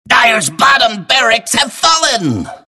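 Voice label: announcer barracks fall dire bot; Clockwerk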